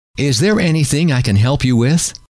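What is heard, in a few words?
is there anything i can help you with, male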